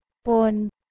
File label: "bun", Persian